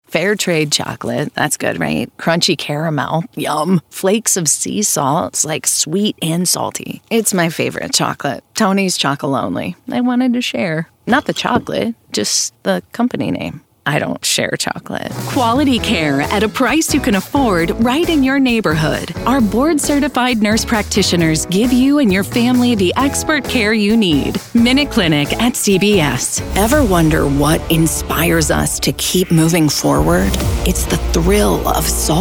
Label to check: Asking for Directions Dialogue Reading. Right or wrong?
Wrong